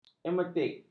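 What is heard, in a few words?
"emetik", Malay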